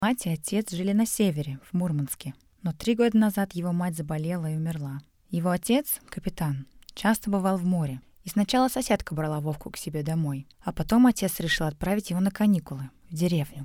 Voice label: Russian standard Hushed